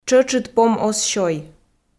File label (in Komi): "тшӧтшыд пом оз сёй", Komi